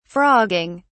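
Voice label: "frogging", British English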